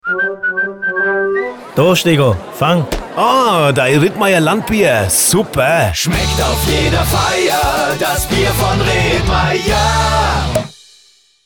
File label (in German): Dialogspot Jingle Abmischung; Rittmayer